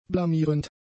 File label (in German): "blamierend", German; adjectives